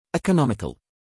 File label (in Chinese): 英籍外師念economics